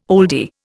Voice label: "oldie", Indian